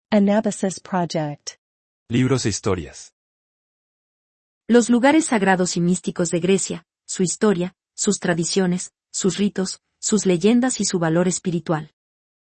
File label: voiceover; historia sus tradiciones sus ritos sus leyendas y su valor espiritual